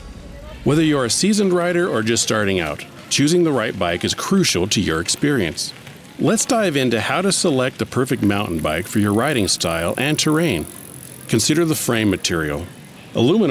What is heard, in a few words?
Narration Explainer